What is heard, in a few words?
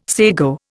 "sago", Indian